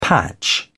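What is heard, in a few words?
"patch", British English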